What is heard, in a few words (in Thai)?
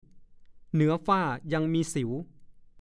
"เหนือฝ้ายังมีสิว", ภาษาโคราช; (สำนวน) ยังมีสิ่งที่เหนือกว่า